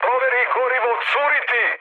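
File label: Serbian tank damaged mood high; speech; War Thunder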